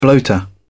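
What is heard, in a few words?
"bloater", British English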